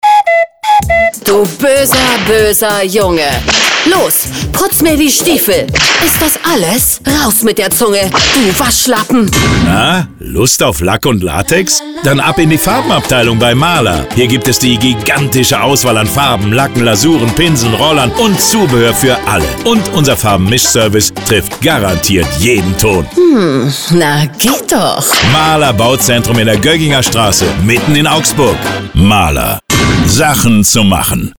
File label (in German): Radiospot; Mahler Bauzentrum Radiokampagne; mahler kampagne 2016